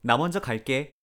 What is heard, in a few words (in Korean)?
"나 먼저 갈게", Korean; goodbye in korean